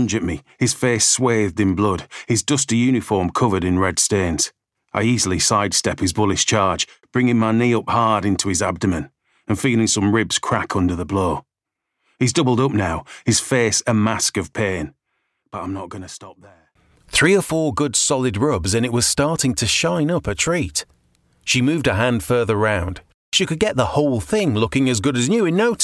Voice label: audiobook, Friendly English Voice With Yorkshire Accent